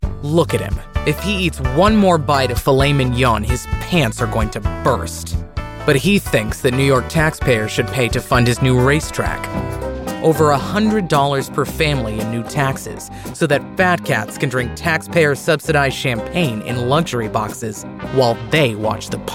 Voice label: attitude angry frustrated sarcastic cynical taunting exasperated attack ad